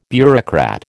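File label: "bureaucrat", American English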